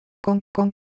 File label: Korean Motion; Ideo Phones